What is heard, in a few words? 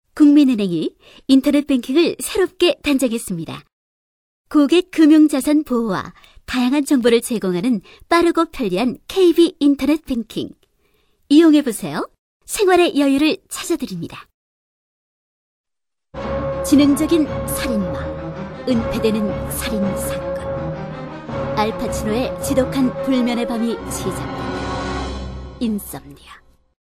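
Korean female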